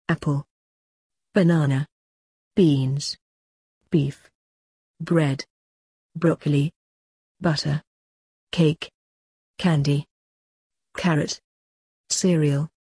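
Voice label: British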